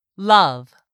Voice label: "love", English; 3000 words

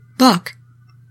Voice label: "BOOK", English; 3000 words

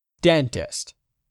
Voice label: "dentist", English; 3000 words

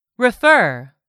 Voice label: "REFER", english; 3000 words

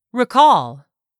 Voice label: "recall", English; 3000 words

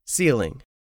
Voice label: "CEILING", English; 3000 words

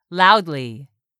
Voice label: "LOUDLY", english; 3000 words

